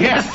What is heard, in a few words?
"yes" male happy